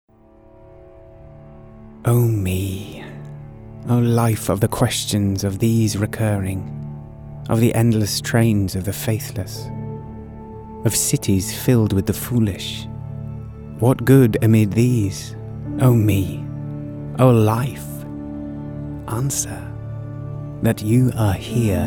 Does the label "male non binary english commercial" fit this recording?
Yes